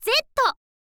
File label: "z", info girl